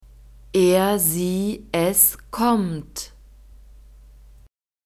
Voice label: "er sie es kommt", German

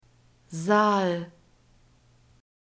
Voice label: "Saal", German

